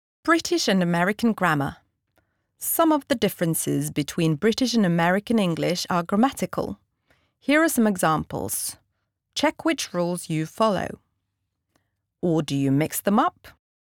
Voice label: no engelsk uk fagbok, female; norwegian